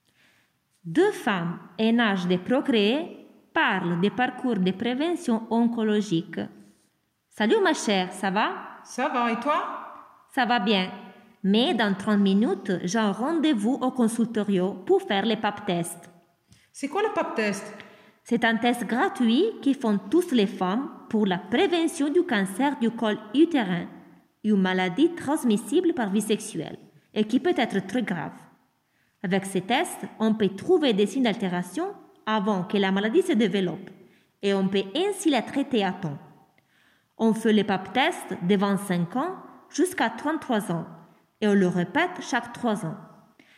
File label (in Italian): Francese; icare; guidaaiservizi